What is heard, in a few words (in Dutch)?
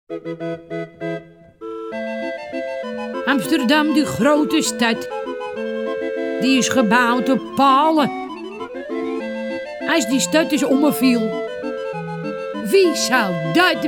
Amsterdam, die grote stad, Rijmpjes en versjes voorgelezen